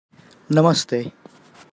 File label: Hello Gujarati